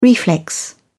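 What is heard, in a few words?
"reflex", English, uk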